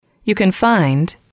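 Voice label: you can find, English, female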